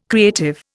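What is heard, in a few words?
"creative", Indian English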